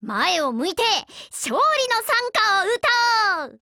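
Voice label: ATTACK, Japanese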